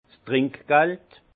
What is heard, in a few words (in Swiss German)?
"trinkgeld", alsace; vin; pourboire